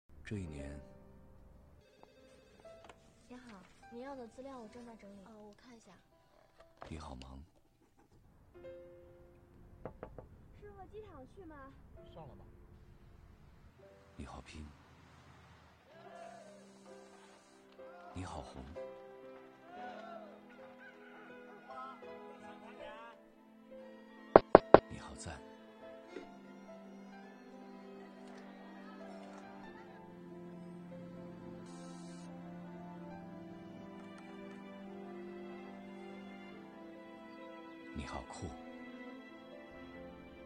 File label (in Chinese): 男 公益广告; 央视元旦; 你好2017